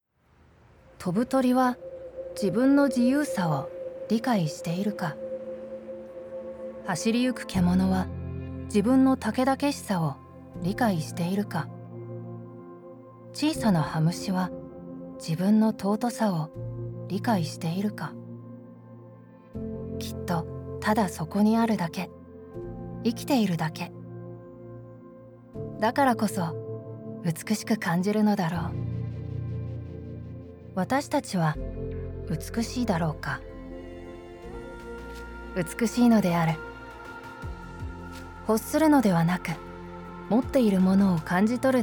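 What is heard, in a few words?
murmur turns majestic, English Voice Over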